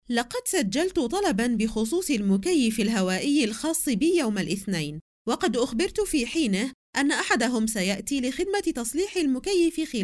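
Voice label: Arabic, female, e-learning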